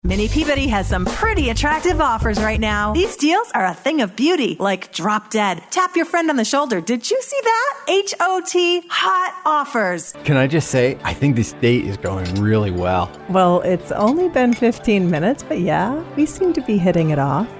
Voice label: english us and canada female generic